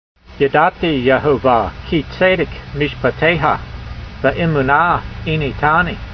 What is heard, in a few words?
voice, hebrew language; Psalm 119:75; yadatee yehova kee-tseydek meeshpateyha, ve'e'moonah ee'neetanee